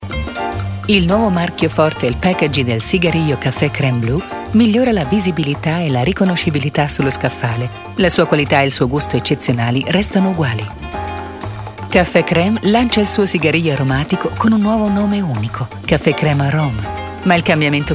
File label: italian